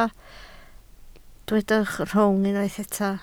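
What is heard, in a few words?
"rhwng" Welsh, spoken word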